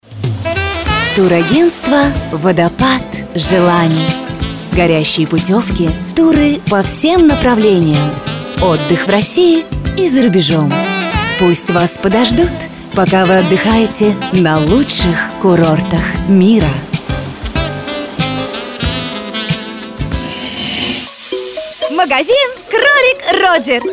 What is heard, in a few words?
dictor